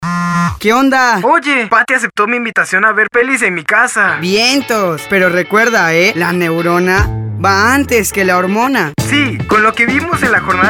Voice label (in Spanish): SPOT Radio; PROEQUIDAD; Y SI MEJOR LO HABLAMOS